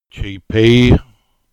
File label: Cornish; place names; Chappie